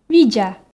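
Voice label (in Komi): "видза", Komi, female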